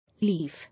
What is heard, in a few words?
"Leaf", English